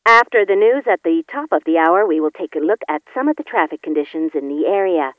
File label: speech normal